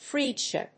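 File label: "Phrygia", English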